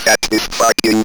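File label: ROBVOX, robot voice; E-Lab; X Static Goldmine 1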